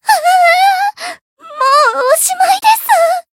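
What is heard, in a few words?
Voice encounter fear, Japanese; yuna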